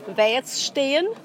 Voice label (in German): Dialekte; GHV Dialekte 2018